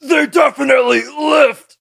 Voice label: defeat, en; cookie run